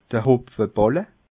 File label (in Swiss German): "hopfeballe", alsace